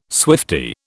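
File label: "swiftie", British English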